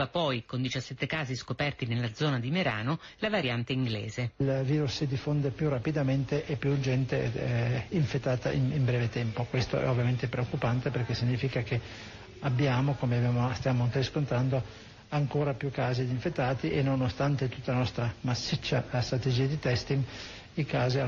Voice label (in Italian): Radio; Rai Südtirol; Variante inglese 17 contagi in Alto Adige